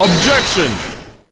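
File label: "objection", male; godot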